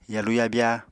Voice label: "yalu yabya", Apatani